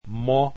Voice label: "m", Esperanto alphabet